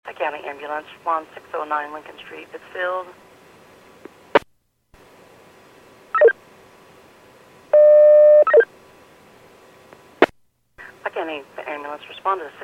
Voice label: PAGING